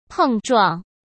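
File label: "碰撞", Mandarin Chinese; hsk; chinese-flashcards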